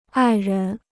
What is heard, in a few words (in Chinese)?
"爱人", Chinese